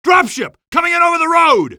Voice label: drop road, male; Half-Life 2; coast; barn

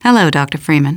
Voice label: hello, female; hello dr

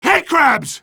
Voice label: headcrabs, male; Half-Life 2

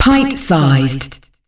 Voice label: "Pint sized", announcer female; Unreal Tournament; ut2004

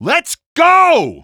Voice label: lets go, male; Half-Life 2

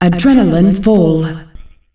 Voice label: adrenalin, announcer female; Unreal Tournament; ut2004

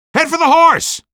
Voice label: horse, male; Half-Life 2; streetwar; rubble

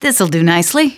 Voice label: this'll do nicely, female; Half-Life 2

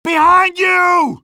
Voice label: behind you, male; Half-Life 2

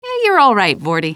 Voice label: question, female

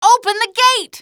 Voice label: open gate, Voice Lines, male; Half-Life 2; streetwar; barricade

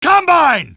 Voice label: combine, male; Half-Life 2

